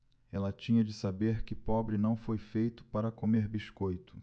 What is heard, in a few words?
PORTUGUESE BRAZIL